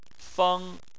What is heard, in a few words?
"feng1", Chinese